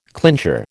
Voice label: "clincher", American